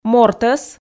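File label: "мортӧс", Komi, female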